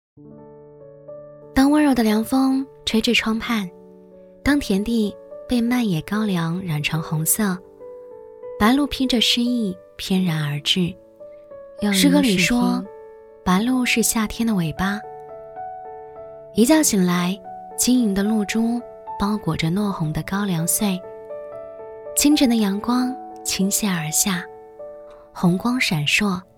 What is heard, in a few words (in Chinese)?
女 素人; 文旅配音; 模仿房琪